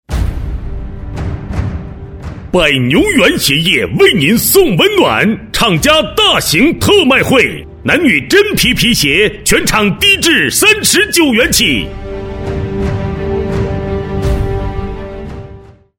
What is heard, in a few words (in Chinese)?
促销 男; 百牛圆鞋业